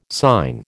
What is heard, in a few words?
"sign", American